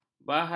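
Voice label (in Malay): "bahaya", Malay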